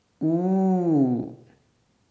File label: "ū", sanskrit pronunciation